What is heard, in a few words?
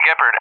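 "Gepard", English, USA; AirDefence; DCS; Allied Flight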